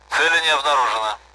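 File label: Speech, Russian, AWACS; clean; DCS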